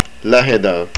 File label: Near, estonian